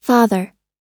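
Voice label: "father", English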